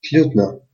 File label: "please", Albanian Gheg